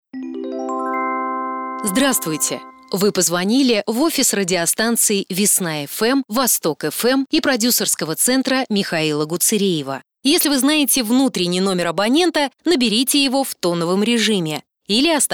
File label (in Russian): Автоответчик